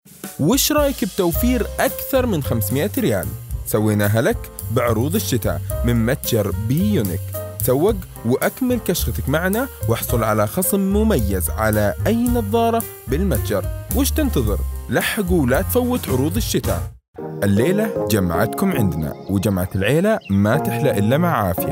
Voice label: سعودي و فصحى

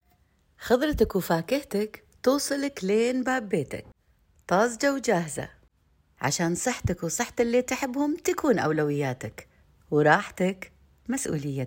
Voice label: أعلان دعائي باللهجة الكويتية

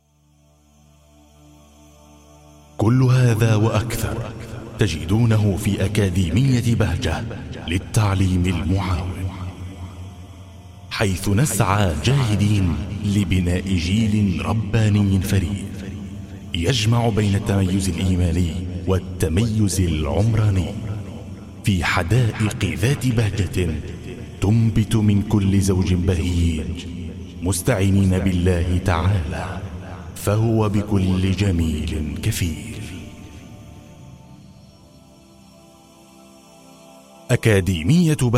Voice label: اعلاني فخم سلوجن

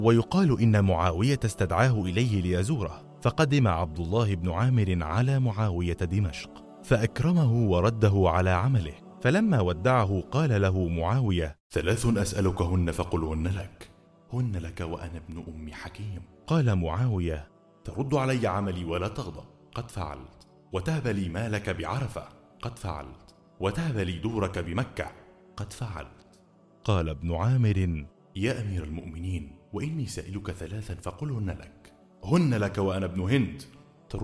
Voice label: عينة دوبلاج

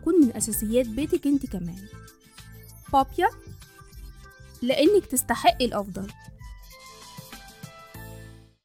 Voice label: تجربة أداء إعلان مناديل; مناديل بابيا بريميوم

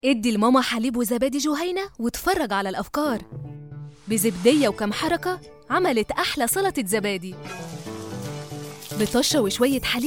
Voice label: اعلان; جهينة

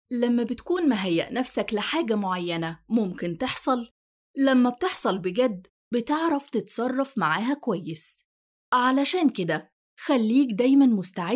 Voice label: vo توعوي

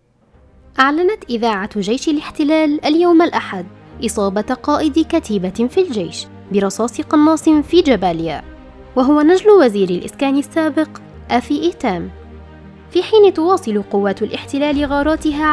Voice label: إعلان; قناة الغد

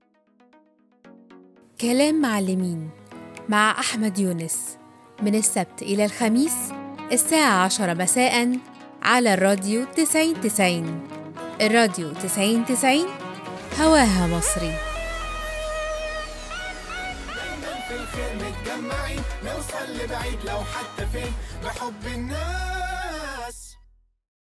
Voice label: كلام معلمين Promo

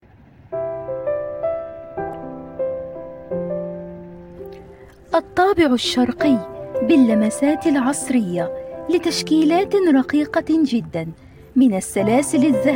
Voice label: اعلان للمجوهرات بالامارات; روبي

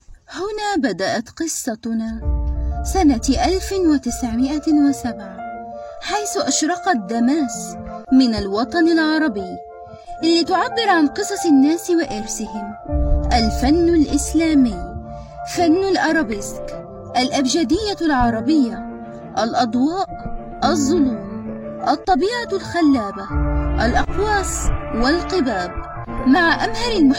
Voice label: تجربه اعلان باللغه العربيه بصوتي; داماس

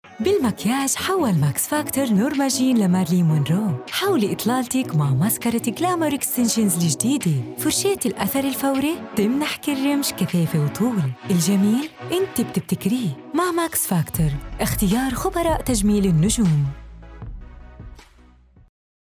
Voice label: لبناني, معلقة صوتية; مسكارة ماكس فاكتور

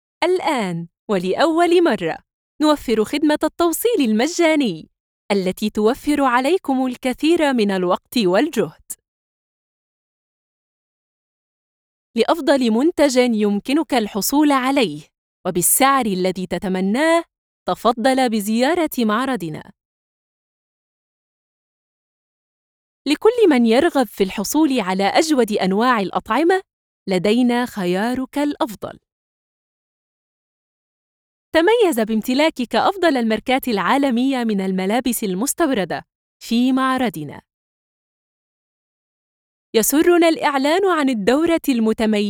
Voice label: اعلان

